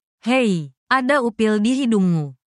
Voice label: indonesian phrases